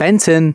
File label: announcements male